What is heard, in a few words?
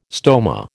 "stoma", American